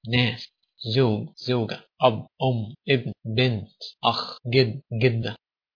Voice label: egyptian; people

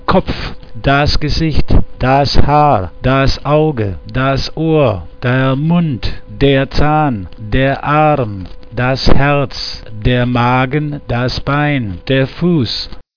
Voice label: german

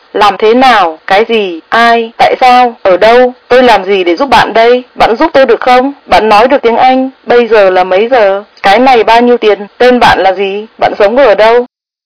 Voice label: Vietnamese phrases

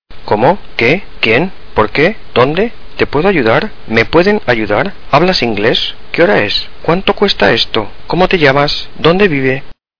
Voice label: spanish questions

